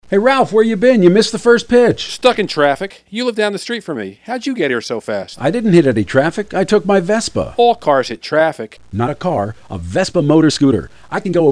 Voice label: commercial; wfan; vespa